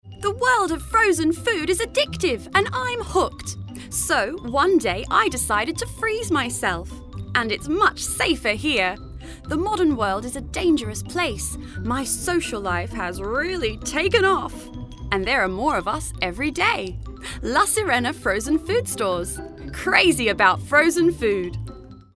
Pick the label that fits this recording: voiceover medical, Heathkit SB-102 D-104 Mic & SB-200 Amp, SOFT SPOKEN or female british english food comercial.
female british english food comercial